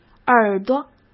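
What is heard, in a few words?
"耳朵", Mandarin Chinese; chinese flashcards; hsk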